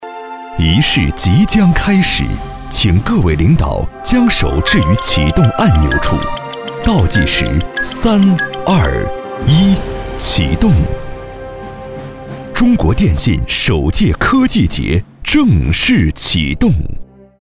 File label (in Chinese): 男 颁奖 开幕倒计时; 中国电信